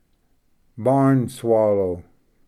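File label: "barn swallow", English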